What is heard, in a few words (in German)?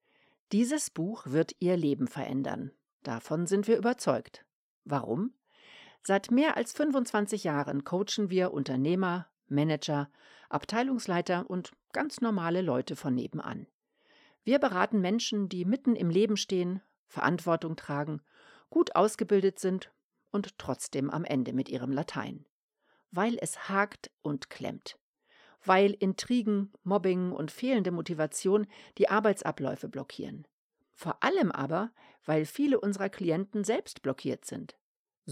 Ratgeber; hoerproben hoerbuchsprecherin